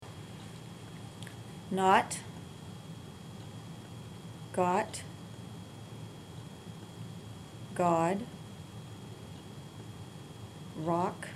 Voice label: boston, dialects; usa

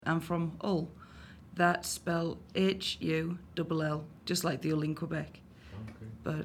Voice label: england hull conversation; dialect samples

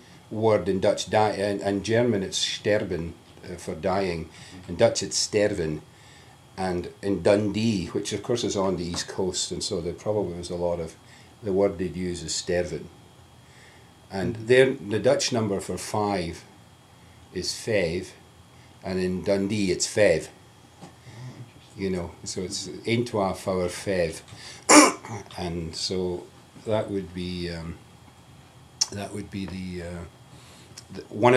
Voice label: Scotland Conversation, dialect samples; Natural Conversation

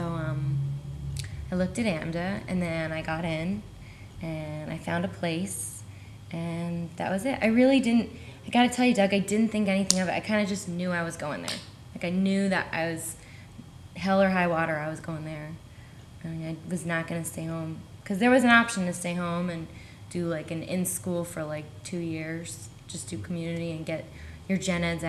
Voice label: us ny pennsylvania conversation; usa; dialect samples

